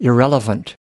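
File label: "irrelevant", US English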